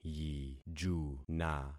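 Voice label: "yijuna", concatenated syllables; Affixing experiment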